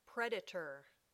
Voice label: "predator", English

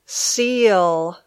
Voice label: "seal", English

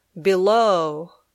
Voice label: "below", English

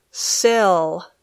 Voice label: "sill", English

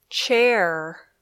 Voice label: "chair", English

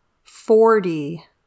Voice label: "forty", English

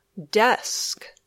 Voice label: "desk", English

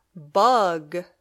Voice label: "bug", English

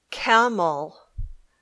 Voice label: "camel", English

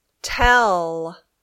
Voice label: "tell", English

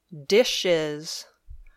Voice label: "dishes", English

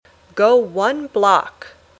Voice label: "Go one block", English

